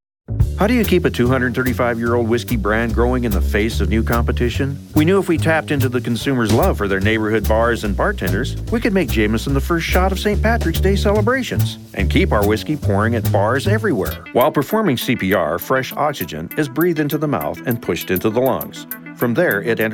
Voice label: narration, voiceover